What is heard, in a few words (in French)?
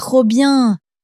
"trop bien", French; flashcards